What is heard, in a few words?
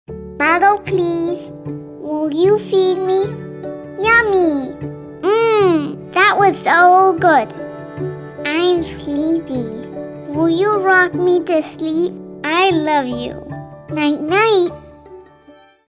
Kid Next Door Character